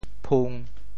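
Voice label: "phung1", Teochew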